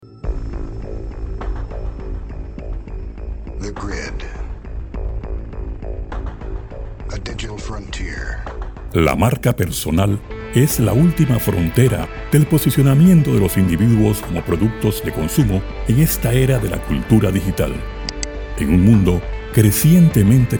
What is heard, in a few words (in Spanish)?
narra